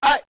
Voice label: "ay", voice

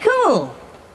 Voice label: cool robot, voice; expletives

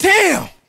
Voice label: "damn", expletives

